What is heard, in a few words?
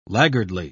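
"laggardly", British English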